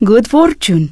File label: Draenei Female Military Greeting